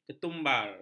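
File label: "ketumbar", Malay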